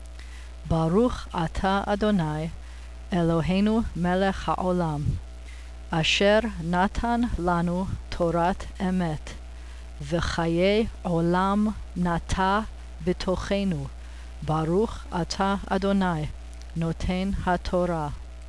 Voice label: Torah Blessing after read